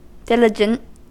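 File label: "diligent", US English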